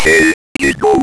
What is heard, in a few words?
Guy Voice